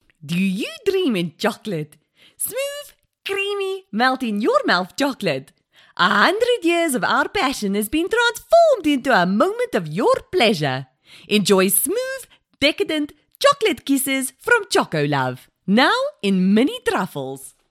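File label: Afrikaans, accented English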